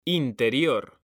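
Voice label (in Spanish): "interior", Spanish, male; mekanta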